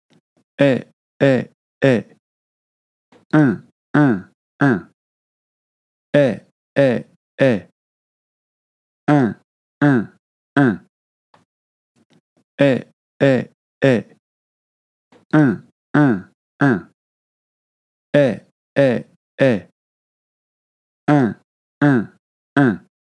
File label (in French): nasale